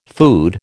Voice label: "food", American